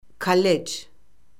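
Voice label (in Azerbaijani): "kollec", Azerbaijani; Orfoqrafiya Lüğəti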